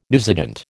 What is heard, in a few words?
"newsagent", American